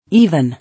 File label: "Even", English